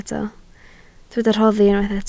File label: "rhoddi", Welsh